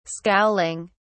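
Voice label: "scowling", British English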